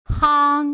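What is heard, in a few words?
"hang", Mandarin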